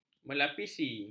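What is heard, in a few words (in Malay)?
"melapisi", Malay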